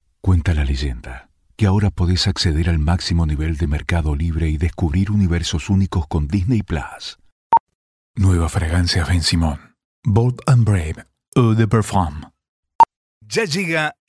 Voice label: PROFUNDO ELEGANTE

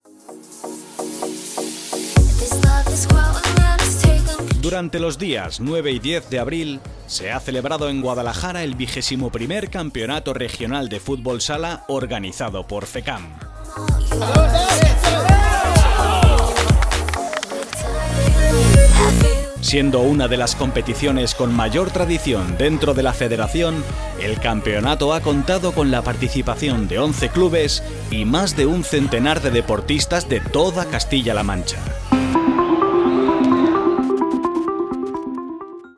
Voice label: Informativo; FECAM

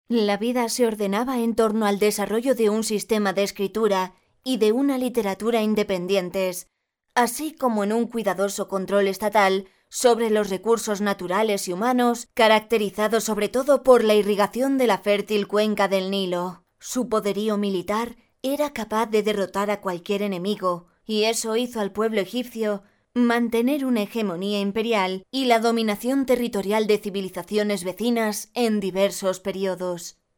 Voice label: documental

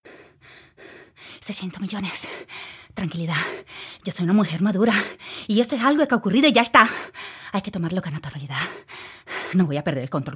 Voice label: Acting; La Comunidad

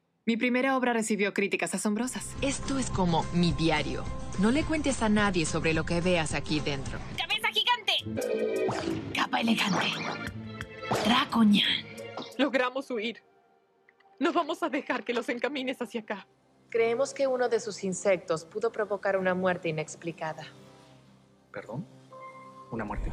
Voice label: Doblaje

